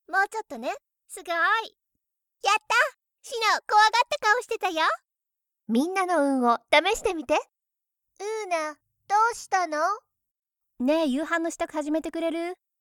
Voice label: anime video game voice-over, JA